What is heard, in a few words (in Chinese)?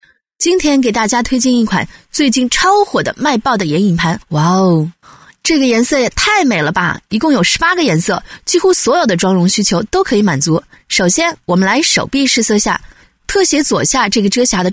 女S112 国语 女声 旁白-素人-直播素人解说介绍 沉稳 娓娓道来 亲切甜美 素人